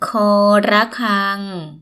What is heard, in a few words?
koor ra kang, Thai